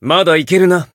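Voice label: Voice heal, japanese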